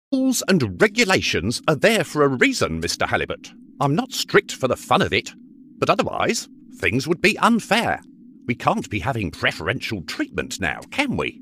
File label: Gaming voices, game dubbing male voices british accent